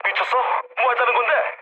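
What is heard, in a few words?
Korean player killed ally mood low; War Thunder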